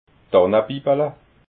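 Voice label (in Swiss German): "tannebibala", Alsatian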